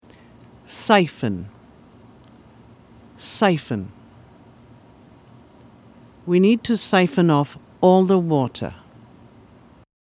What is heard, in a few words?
siphon, pronunciation